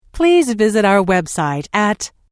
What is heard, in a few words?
Telephone prompt: Please visit our website at, Recorded Voice; Pre-recorded telephone on-hold message